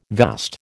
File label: "vast", American